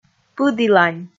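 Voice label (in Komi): "пыділань", Komi, female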